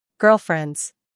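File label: "humor", British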